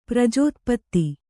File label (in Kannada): "ಪ್ರಜೋತ್ಪತ್ತಿ", Kannada